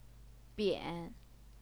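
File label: "bian", Mandarin Chinese, third tone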